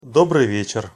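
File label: "dobriy vyecher" Russian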